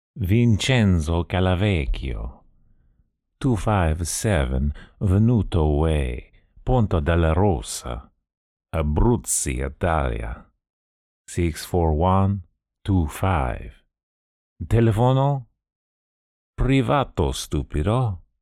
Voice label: Italian Accent